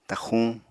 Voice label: "taxxuñ", apatani